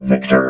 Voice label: "victor", robot

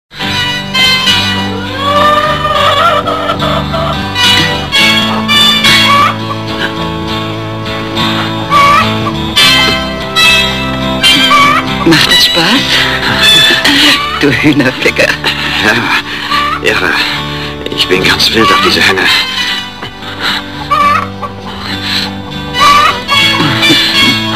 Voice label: german chicken audio; FortressForever; ff hunted

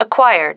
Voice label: "acquired", female

